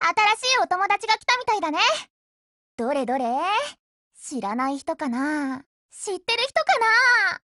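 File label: Japanese, Voice; BUILD OVER; GF Alarm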